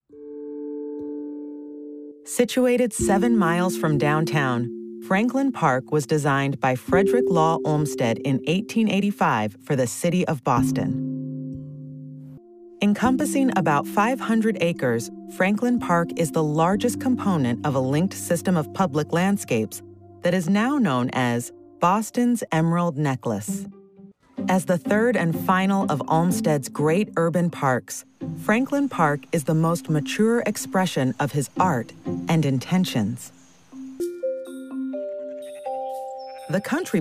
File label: Narration